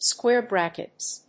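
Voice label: "square brackets", English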